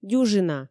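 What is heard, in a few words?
"дюжина", Komi, female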